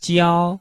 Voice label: "jiao1", Chinese